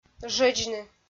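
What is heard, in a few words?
"жӧдзны", Komi, female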